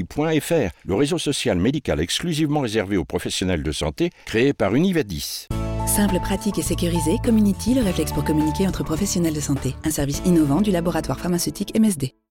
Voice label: radio spot; comuniti professionnels de sante